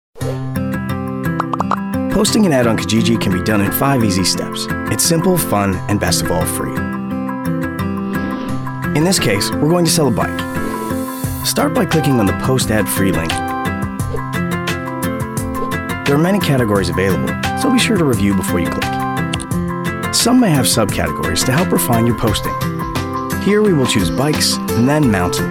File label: commercial male